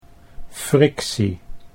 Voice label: "frictie", Dutch